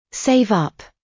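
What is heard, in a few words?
"save up", British; Business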